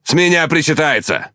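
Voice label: Russian Speech Quick Commands Thank You; World Of Warships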